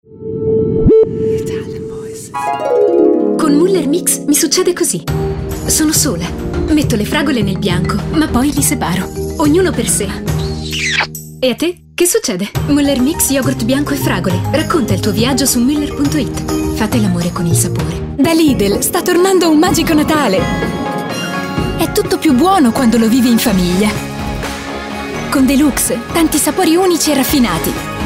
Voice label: ITALIAN VOICES Bright Warm

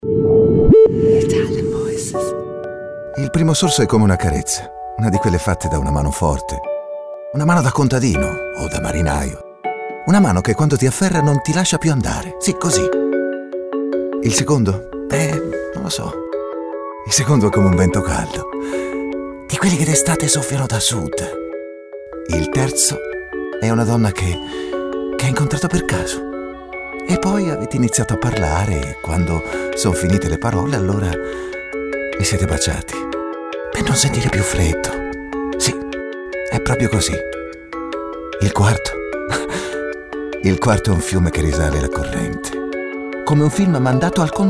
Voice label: ITALIAN VOICES; Naked Reel

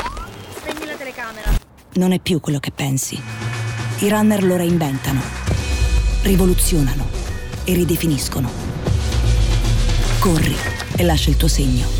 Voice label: ITALIAN VOICES Genuine Intense

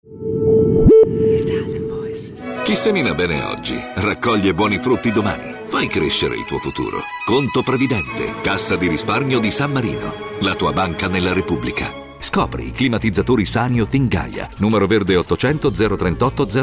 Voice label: Authoritative Reassuring, ITALIANVOICES

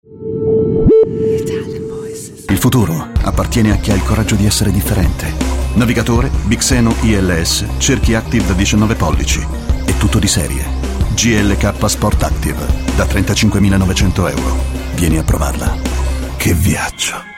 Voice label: ITALIAN VOICES Intense Powerful

